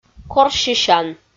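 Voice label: "корсьысян" Komi, female; audio dict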